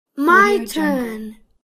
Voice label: boy my turn demanding